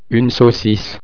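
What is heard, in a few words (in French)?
"saucisse", French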